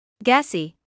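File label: "gassy" English, British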